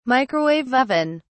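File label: "microwave oven", British English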